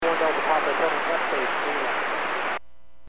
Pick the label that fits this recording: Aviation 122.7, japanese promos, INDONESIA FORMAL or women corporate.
Aviation 122.7